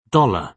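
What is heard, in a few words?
"dollar", British English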